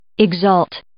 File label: "exult", English